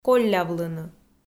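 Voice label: "коллявлыны" Komi, female; audio dict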